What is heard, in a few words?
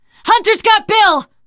hunter pounced, teengirl survivor voice; video game; Left 4 Dead; Source Engine